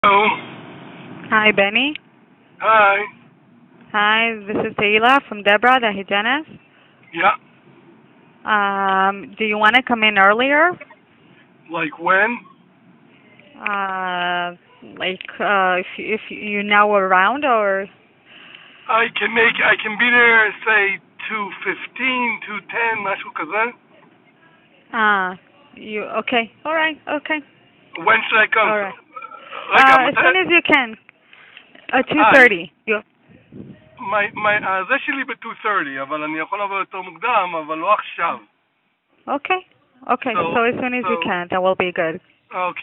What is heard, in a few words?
call recording